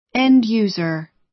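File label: "end user", American English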